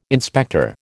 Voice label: "inspector", American